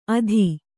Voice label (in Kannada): "ಅಧಿ", Kannada